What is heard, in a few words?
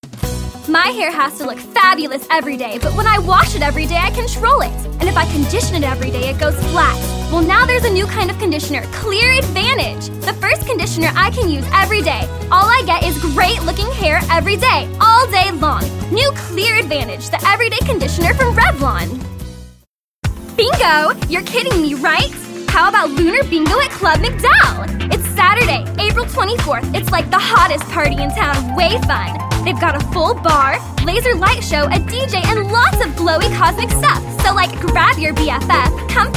voiceover female